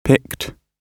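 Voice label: "picked", British English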